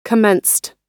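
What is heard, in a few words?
"commenced", American English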